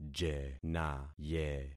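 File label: "jenaye", concatenated syllables; Affixing experiment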